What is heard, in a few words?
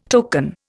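"tokenism", Indian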